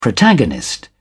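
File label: "protagonist", British English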